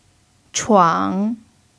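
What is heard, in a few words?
"chuang3", Chinese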